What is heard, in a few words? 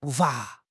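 "步伐", Chinese; HSK6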